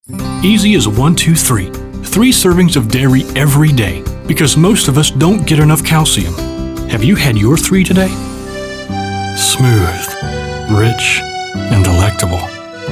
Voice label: Voice Over